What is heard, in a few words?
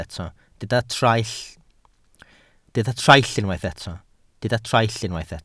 "traill", Welsh